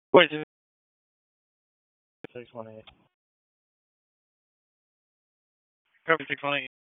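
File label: Police Southwest District Dispatch, p25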